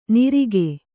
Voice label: "ನಿರಿಗೆ", kannada

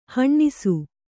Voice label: "ಹಣ್ಣಿಸು", Kannada; haṇṇisu; to ripen

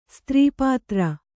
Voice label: "ಸ್ತ್ರೀಪಾತ್ರ", Kannada

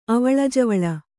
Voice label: "ಅವಳಿಜವಳಿ", Kannada

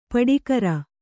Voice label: "ಪಡಿಕರ", Kannada

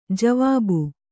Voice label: "ಜವಾಬ್", Kannada

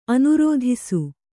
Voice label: "ಅನುರೋಧಿಸು", Kannada

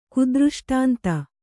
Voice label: "ಕುದೃಷ್ಟಾಂತ", Kannada

